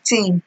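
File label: "ting", Nigerian Pidgin, female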